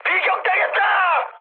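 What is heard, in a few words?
Korean aircraft destroyed mood high, speech; War Thunder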